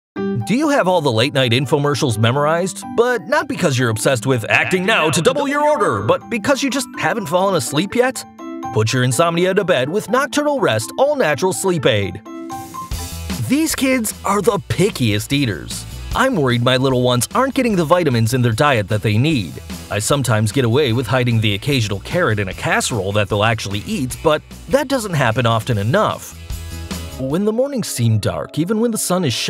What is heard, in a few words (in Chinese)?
美式英语男声